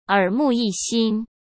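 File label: "耳目一新", Mandarin Chinese; chinese-flashcards; hsk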